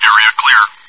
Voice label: area clear, bot; Source Engine; cstrike